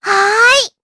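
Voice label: Vox, voices, Japanese; Lilia; kings raid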